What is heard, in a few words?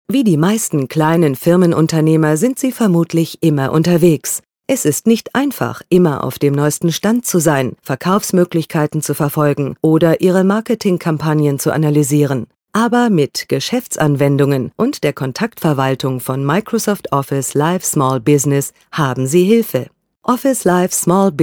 de elearning